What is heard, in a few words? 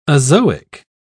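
"azoic", British English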